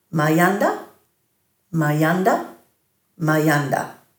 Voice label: "mayanda", Dhurga

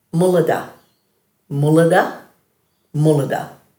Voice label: "muladha", Dhurga

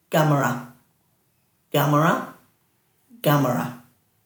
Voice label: "gamara", Dhurga

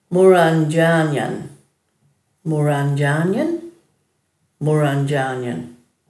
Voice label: "murandjanyan", Dhurga

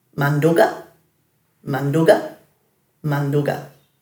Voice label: "manduga", Dhurga

